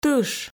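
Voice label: "тыш", Komi, female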